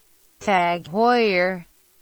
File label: "TAG Heuer" Pronunciation